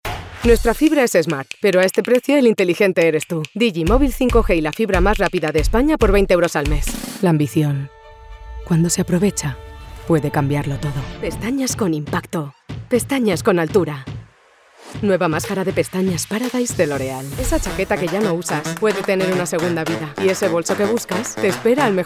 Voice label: ES Full Reel; banco de voces

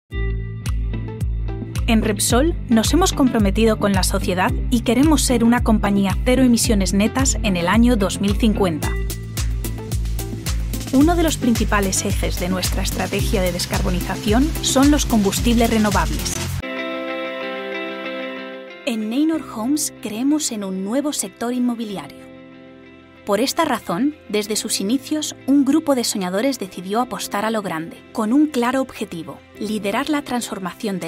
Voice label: espanol, banco de voces

